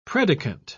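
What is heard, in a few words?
"predicant", English, British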